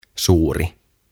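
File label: "Suuri", Finnish; OTAVA KONSERNI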